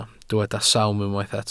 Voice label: "sawm", welsh